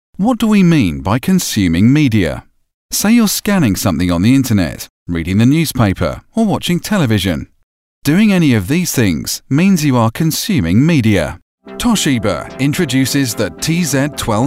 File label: Corporate Explainer VO